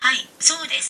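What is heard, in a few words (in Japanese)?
"はい" Japanese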